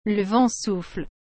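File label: "le vent souffle", French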